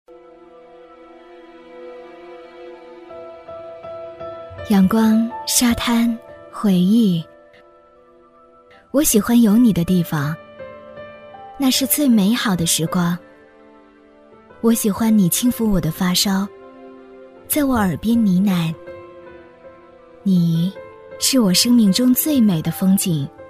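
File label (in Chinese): 女 微电影【年轻回忆】; 低沉走心; 相遇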